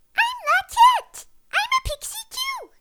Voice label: Voiceover Actress; Lockette; winx club